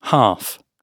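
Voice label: "half", British English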